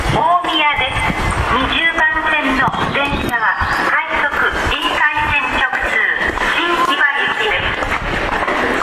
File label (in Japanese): Arrive, 到着放送 20番線の音声; 埼京線; 池袋・川越方面